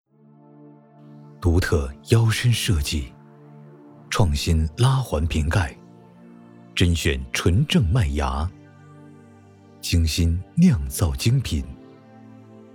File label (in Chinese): 广告